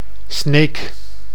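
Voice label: "Sneek", Dutch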